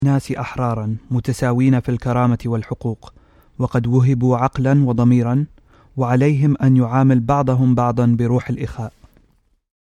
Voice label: Arabic